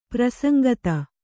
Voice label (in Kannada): "ಪ್ರಸಂಗತ", Kannada; prasaŋgata; joined; associated (with); connected.